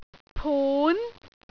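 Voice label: "pun2", Cantonese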